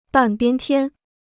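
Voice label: "半边天", Chinese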